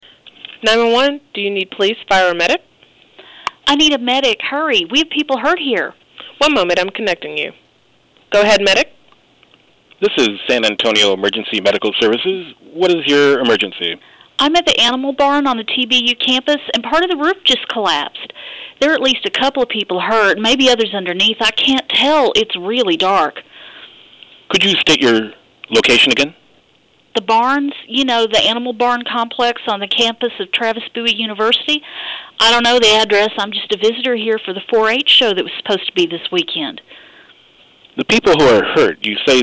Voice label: 911 call